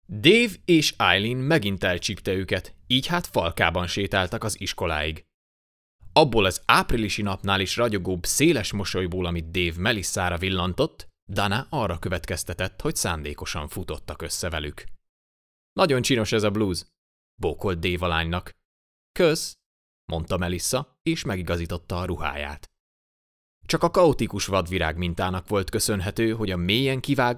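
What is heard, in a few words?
Hungarian, General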